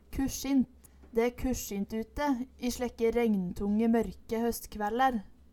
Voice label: "kurssjint", Numedalsmål

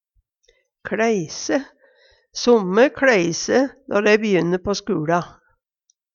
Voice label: kLeise, Numedalsmål; Somme kleise då rei b'jynne på skuLa.

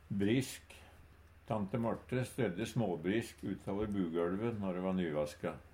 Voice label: "brisk", Numedalsmål

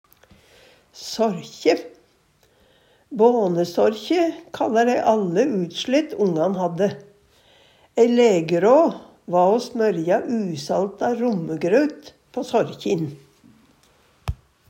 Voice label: sarkje, Numedalsmål

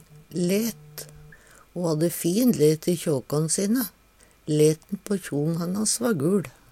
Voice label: let, Numedalsmål; farge

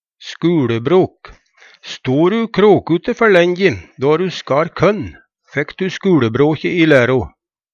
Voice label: "skuLebrok", Numedalsmål

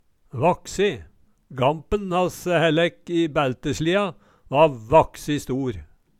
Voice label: "vaksi", Numedalsmål

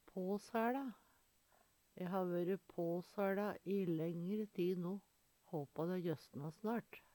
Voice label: pasaela, Numedalsmål; påsæLa; Eksempel på bruk E ha vøre påsæLa i lengre ti. Håpar dæ jøssnar snart.

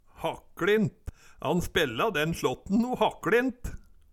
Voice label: "hakkLint", Numedalsmål; Han spella den slåtten no hakkLint

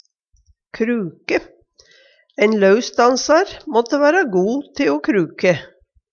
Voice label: kruke, Numedalsmål

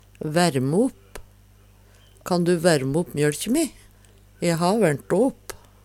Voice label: værme opp, Numedalsmål

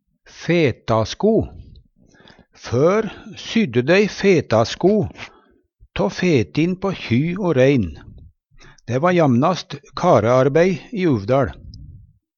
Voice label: fetasko, Numedalsmål; Fetin er skinnet på leggen.; Skalla var sydd av skinn frå reinskallen (hovudet)

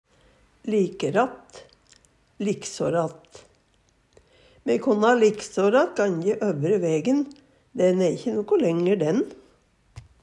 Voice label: liksoratt, Numedalsmål

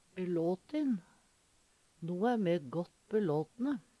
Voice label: "belatin", Numedalsmål

